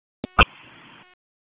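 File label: FIRE Operations, p25; FIRE OPS 1; wake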